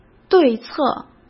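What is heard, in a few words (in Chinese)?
"对策", Mandarin; chinese-flashcards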